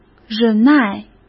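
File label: "忍耐", Mandarin Chinese; chinese-flashcards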